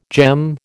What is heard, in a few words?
"gem", American